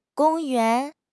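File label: "gong1 yuan2", Mandarin Chinese; HSK3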